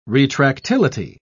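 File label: "retractility", British English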